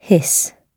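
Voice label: "hiss", British English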